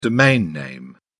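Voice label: "domain name", British English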